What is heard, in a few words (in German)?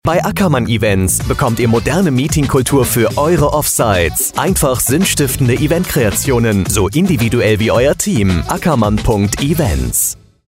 Funkspot; Ackermann Events